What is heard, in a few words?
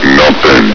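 "nothing", male; hl2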